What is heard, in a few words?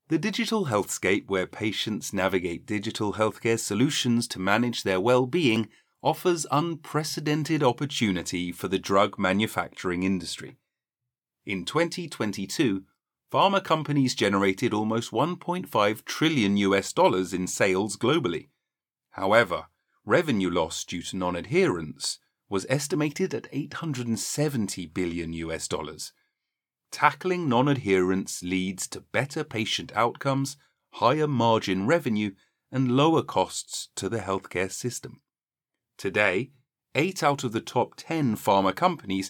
english british medical narration